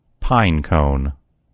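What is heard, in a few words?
"pine cone", American English; gcompris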